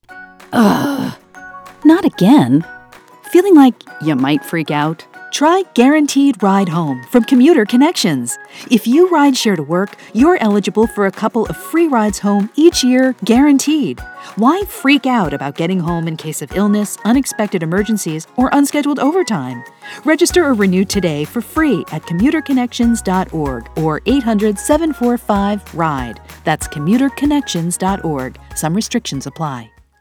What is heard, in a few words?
radio female